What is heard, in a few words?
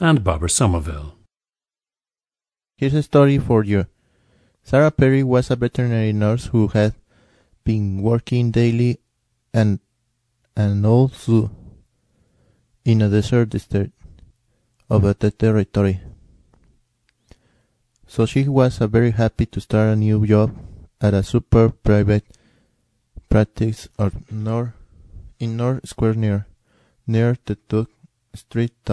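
guanajuato, International Dialects of English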